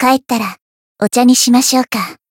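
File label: Voice win, Japanese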